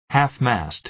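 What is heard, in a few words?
"half-mast", American English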